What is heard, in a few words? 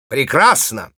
positive vocalization, ru